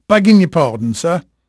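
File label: English male, voice; Pirates Of The Caribbean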